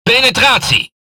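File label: NL Speech Hit Confirmation, Dutch; World Of Warships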